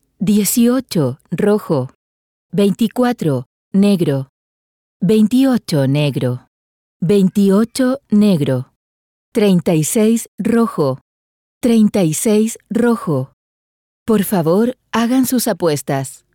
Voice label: brazilian f ivr, portuguese